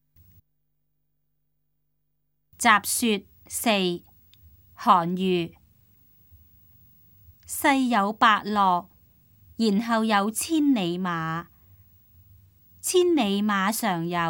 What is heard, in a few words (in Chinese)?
cantonese; 雜說四; 粵語